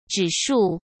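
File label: "指数", Mandarin Chinese; hsk; chinese-flashcards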